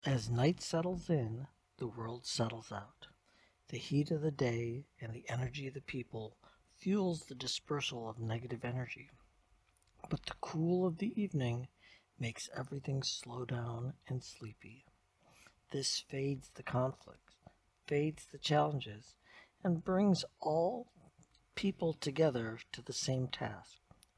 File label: BedTime Guided Meditation